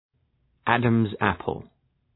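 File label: "Adam's apple", English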